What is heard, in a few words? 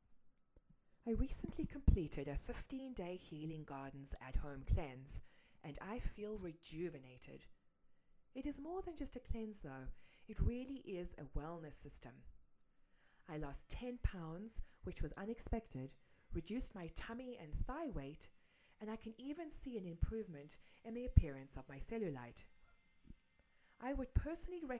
testimonial; healing gardens